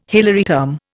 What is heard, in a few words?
"Hilary term", Indian